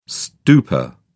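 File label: "stupa", English, uk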